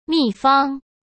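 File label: "秘方", Mandarin Chinese; chinese-flashcards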